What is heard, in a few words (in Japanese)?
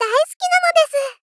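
Moe Love Daisuki nanodesu, moe loli girl; Rei Yumesaki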